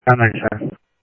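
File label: Mesa Police Department simulcast, scanner audio; MESA PD 2 Centrl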